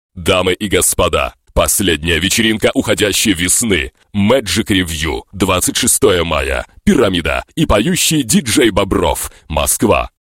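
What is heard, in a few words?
Russian, male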